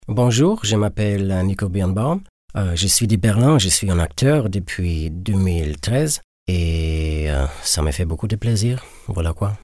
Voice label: franzoesisch; Französisch; Bonjour!

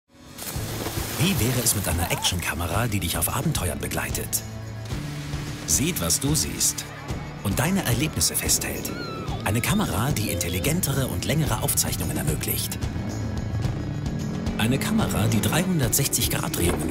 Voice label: Werbung; GARMIN

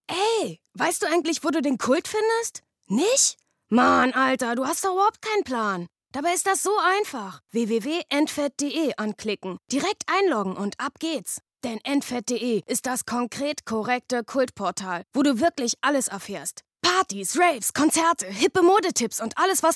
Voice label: Werbung

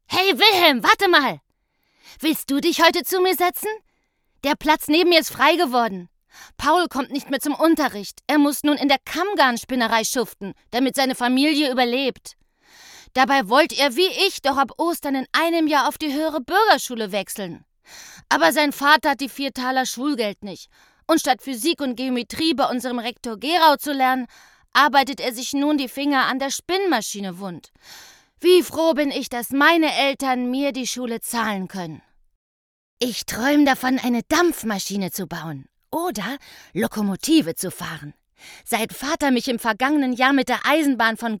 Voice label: Junge; Kind

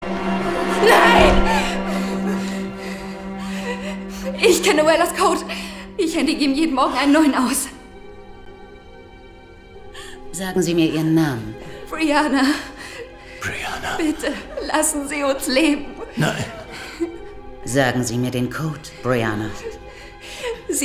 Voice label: Synchron; Blindspot (Briana)

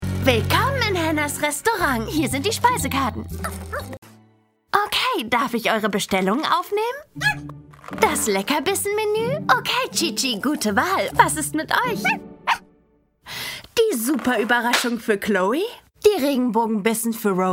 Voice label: Zeichentrick; Hannah

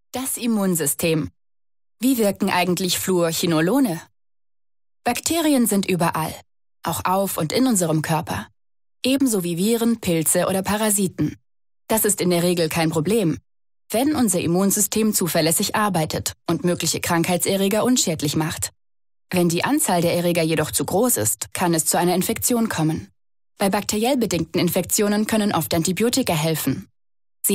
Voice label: Erklaervideo; Fluorchinolone

